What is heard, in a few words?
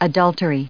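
"adultery", English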